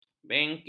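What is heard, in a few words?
"bengkil", Malay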